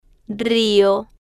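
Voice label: "rio", slow, female, Spanish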